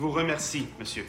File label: thank you french